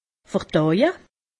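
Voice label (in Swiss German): "verdaue", Alsatian; medecine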